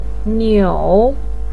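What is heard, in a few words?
"niu3", Mandarin pinyin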